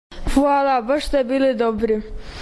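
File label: "fuala", Croatian dialect; hvala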